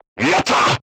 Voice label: voice message target destroyed, crew tanks japanese